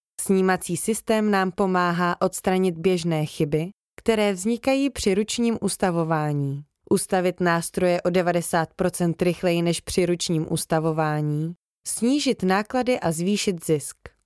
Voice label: Czech Native

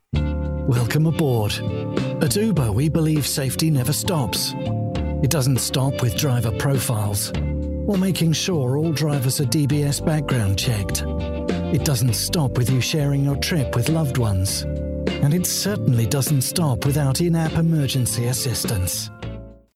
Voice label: English United Kingdom

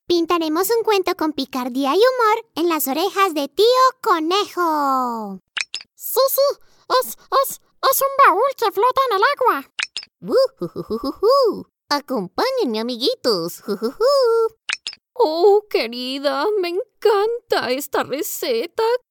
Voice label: Spanish Latin

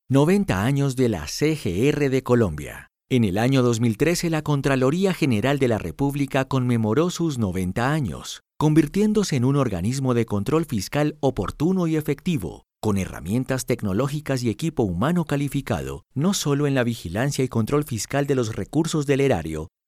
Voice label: Spanish Latin

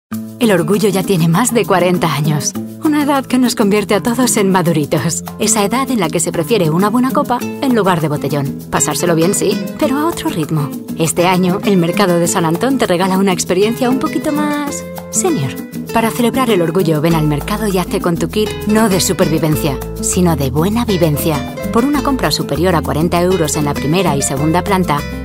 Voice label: Spanish Spain

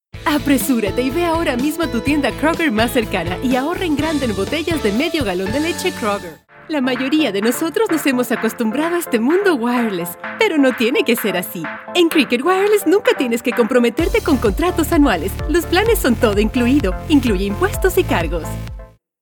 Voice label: Spanish Latin

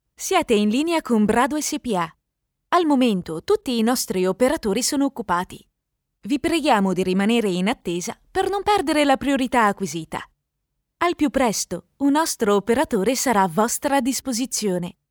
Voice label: Italian Native

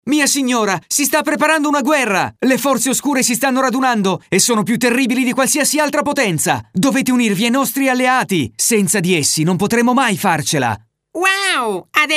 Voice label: Italian Native

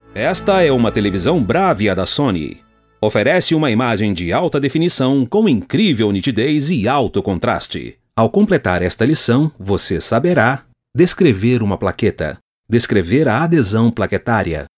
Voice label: Portuguese Brazil

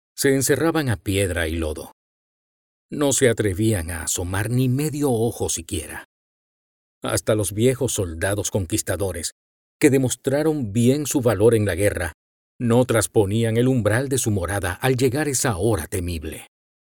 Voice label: Spanish Latin

